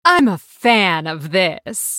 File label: female blood elf trigger fan of knives